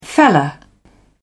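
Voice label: "fella", British English, dictionary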